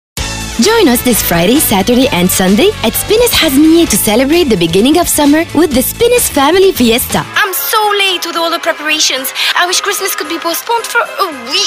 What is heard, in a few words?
English VO